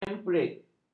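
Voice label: "empirik", Malay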